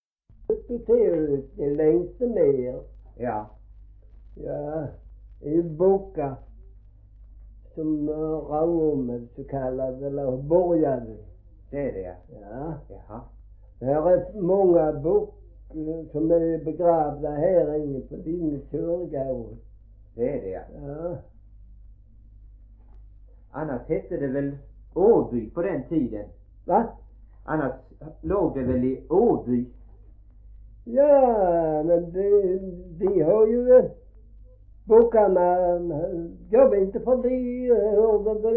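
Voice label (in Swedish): Gråmanstorp Skåne, Svenska sagor dialekter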